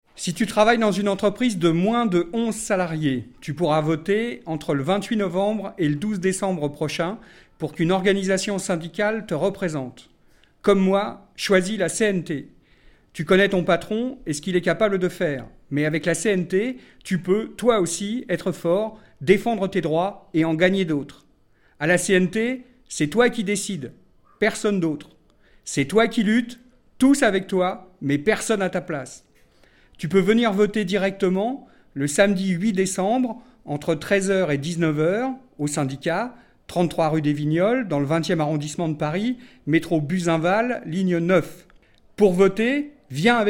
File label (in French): election annonce francais; election TPE 2012; CNT